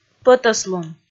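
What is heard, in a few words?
"пӧтӧслун", Komi, female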